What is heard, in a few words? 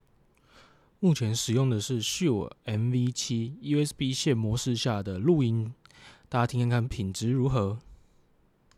SHURE MV7 USB